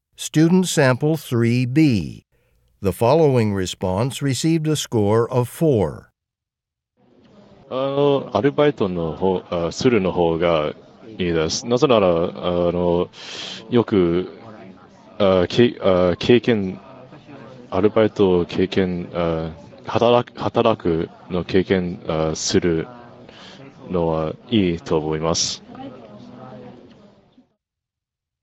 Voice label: japanese convo